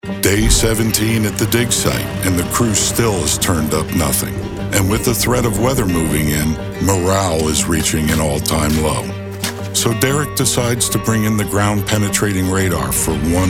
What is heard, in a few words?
Narration